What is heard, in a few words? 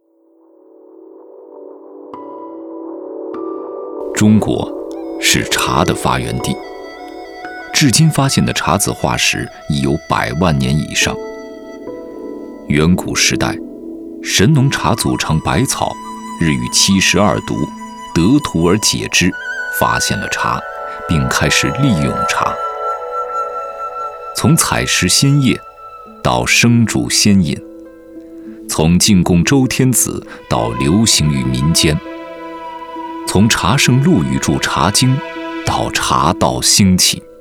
chinese mandarin documentaries